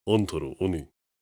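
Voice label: question short, voice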